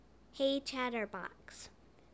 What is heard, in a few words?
hey chatterbox, en us